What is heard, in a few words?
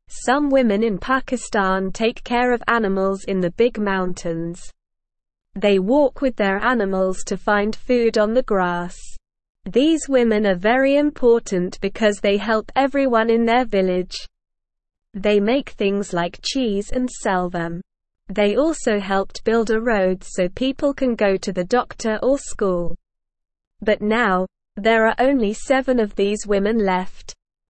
English Newsroom Beginner SLOW Reading; Women in Pakistan Care for Animals in Mountains